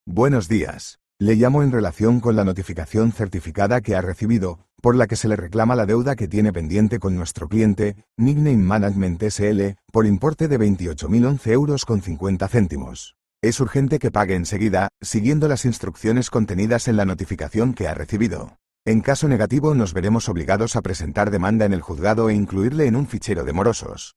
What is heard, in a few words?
audio call